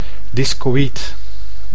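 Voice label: "discoid", Dutch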